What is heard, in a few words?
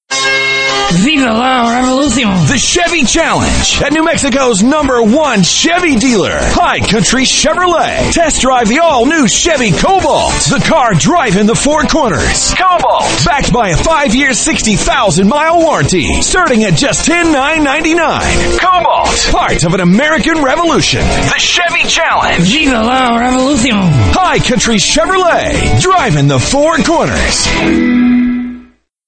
COMMERCIALS, Voiceover; Hi Country Chevrolet